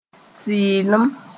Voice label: "tɩrlem", moore

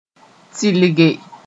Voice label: "tɩlge", moore

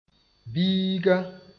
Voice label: "biiga", moore

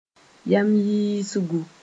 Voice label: "yam yiisgu", Moore

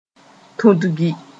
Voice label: "tudgi", moore

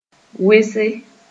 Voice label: "wese", Mooré

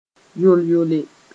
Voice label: "yol-yole", Mooré

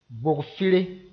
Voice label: "bogfɩre", moore; papaya tree

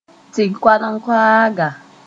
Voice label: "tɩ-koalenkoaaga", Moore; pecker

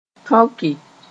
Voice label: "tõke", Mooré

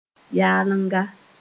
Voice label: "yalenga", Moore

